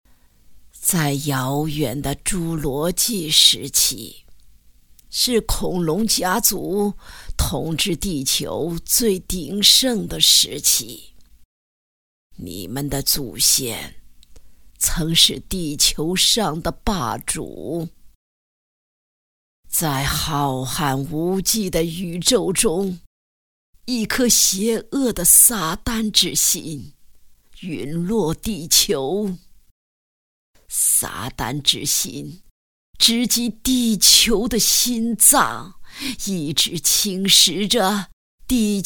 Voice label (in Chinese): 女 角色模仿 老妪角色